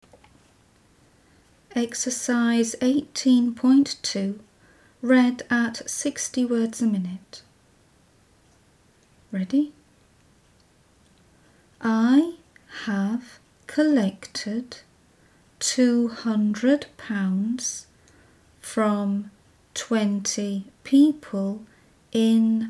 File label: 60wpm; Teeline